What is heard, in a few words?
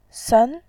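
"sen", Mandarin Chinese, second tone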